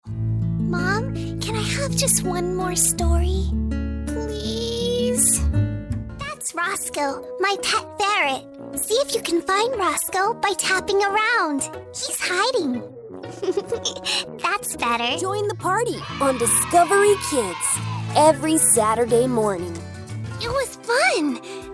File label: American English Childrens Voice